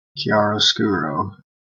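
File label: "chiaroscuro", Canadian English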